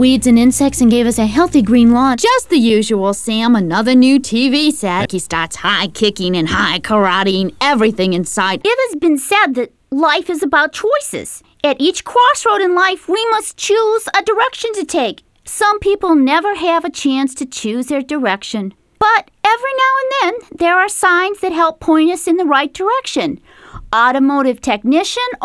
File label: Character